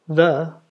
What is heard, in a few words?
"the", ulster; jetpackLearningPlatform; story-arranger